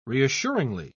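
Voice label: "reassuringly", British English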